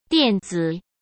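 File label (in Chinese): "垫子", Mandarin Chinese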